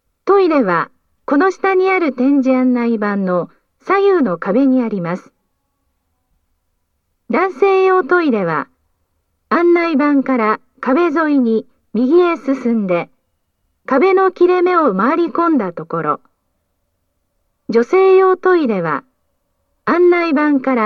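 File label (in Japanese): トイレ案内, 男声; 2番線 綾瀬・北綾瀬・取手方面; 東京メトロ 千代田線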